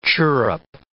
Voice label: "chirrup", English

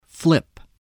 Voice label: "flip", voice

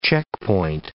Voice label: "checkpoint", English

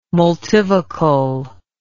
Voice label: "multivocal", English

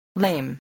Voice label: "lame", English

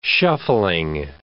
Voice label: "shuffling", English

